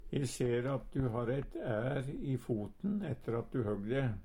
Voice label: ær, Numedalsmål; E ser at du har eit ær i foten etter at du høgg de.

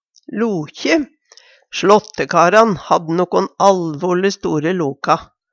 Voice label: lokje, Numedalsmål; Substantiv hankjønn

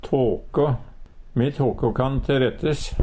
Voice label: "taka", Numedalsmål; Verb

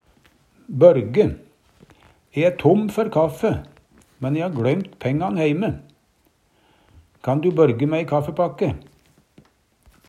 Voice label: "børge", Numedalsmål

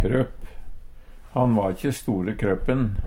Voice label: kropp, Numedalsmål; Substantiv hankjønn

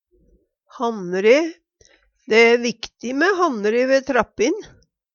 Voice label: hannre, Numedalsmål; Dæ æ viktig mæ hannre ve trappin.

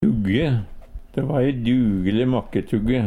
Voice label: "tugge", Numedalsmål

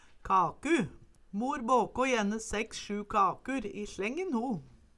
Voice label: kaku, Numedalsmål

